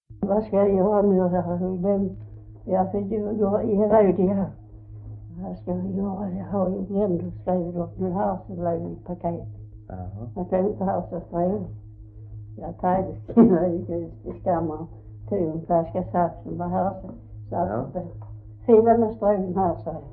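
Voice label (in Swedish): Svedala Skåne, Svenska sagor dialekter; Folkminnen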